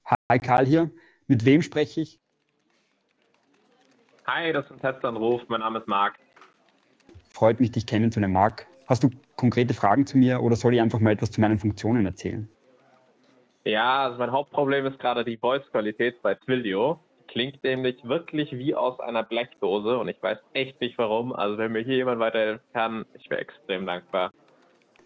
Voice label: test call, Twilio voice quality issues